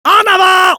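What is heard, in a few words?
Medic battle cry, French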